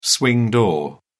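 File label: "swing door", British English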